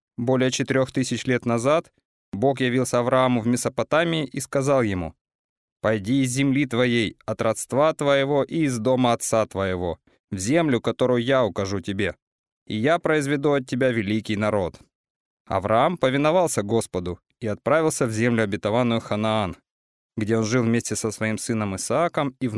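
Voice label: Russian Russia male character; russian voice over talent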